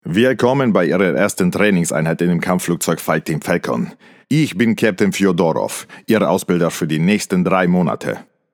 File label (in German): Drill Sergeant russ. Akzent